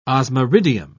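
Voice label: "osmiridium", British English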